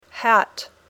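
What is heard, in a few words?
"hat", English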